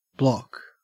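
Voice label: "block", English, Australian accent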